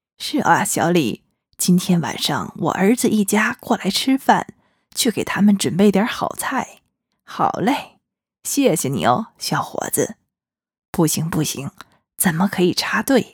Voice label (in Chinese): 老婆婆